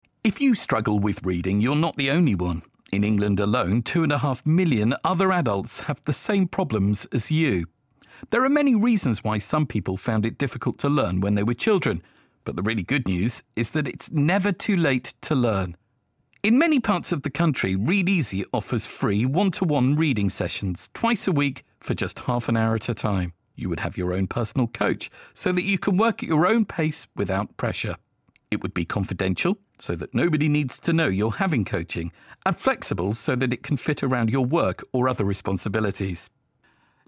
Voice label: Voiceover; Read Easy; Learn to Read